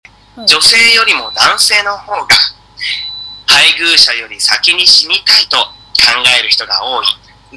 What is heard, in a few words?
japanese